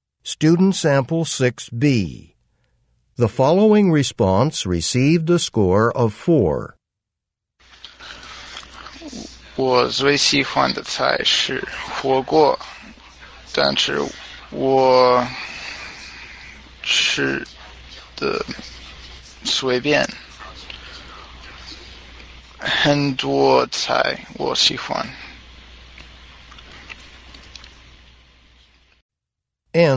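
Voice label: chinese conversation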